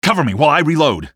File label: cover while reload, male; Half-Life 2